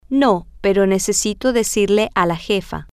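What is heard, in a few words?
No but I need to tell the boss, Spanish, female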